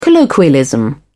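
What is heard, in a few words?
"colloquialism", British English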